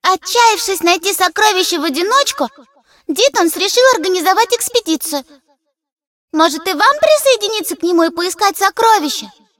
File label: cinematic voice, ru; lineage2